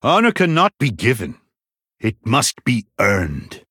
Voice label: Male Human; Tirion Fordring